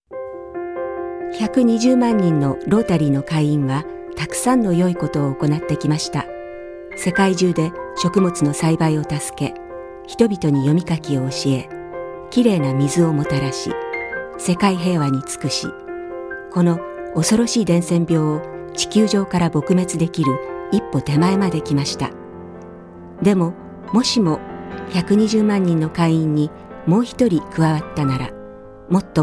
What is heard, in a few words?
radio; public information